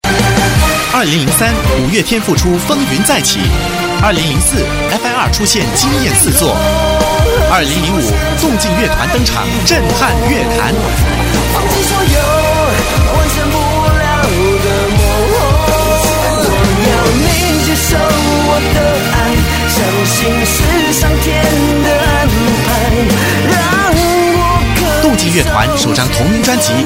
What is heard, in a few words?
Ad Mandarin, Mandarin China Male